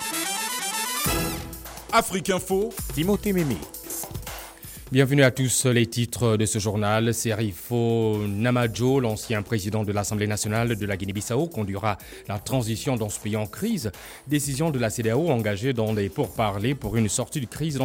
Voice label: radio africa, C-Band; C-Band First Try 1.2m Offset here in Wales, UK; 125cm Aluminium Gibertini Offset Dish